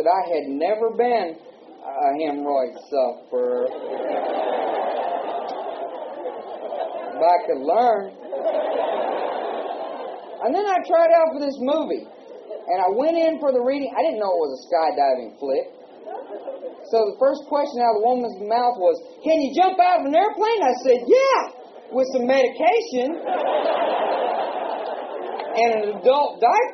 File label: tv commercial